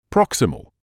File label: "proximal", English